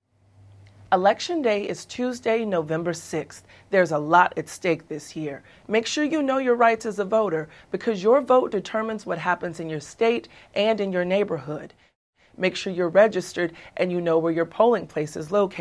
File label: english general psa